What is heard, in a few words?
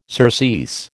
"surcease", American